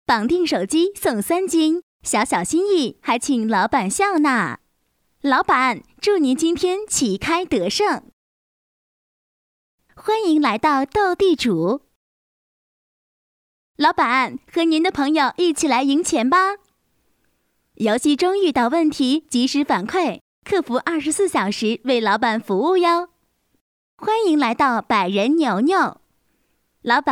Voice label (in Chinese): 女 棋牌角色类